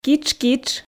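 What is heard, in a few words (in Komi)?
"китш-китш", Komi, female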